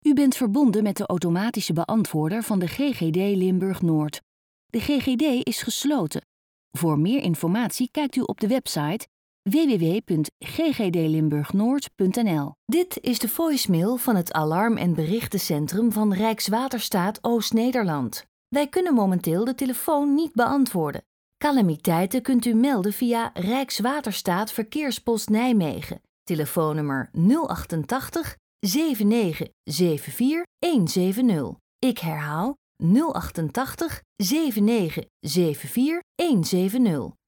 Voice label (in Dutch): Vrouw